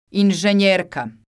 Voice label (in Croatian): "inzenjerka", croatian